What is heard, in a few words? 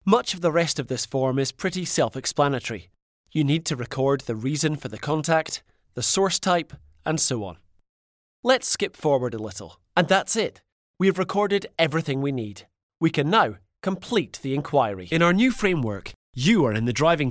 English Scottish M E-Learning, accent of scotland